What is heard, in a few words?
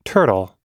"turtle", pronunciation